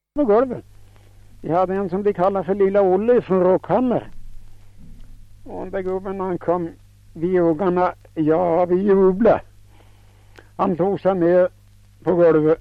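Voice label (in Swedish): Fellingsbro Västmanland, dialekter, svenska sagor; Folkminnen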